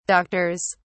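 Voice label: "doctors", British English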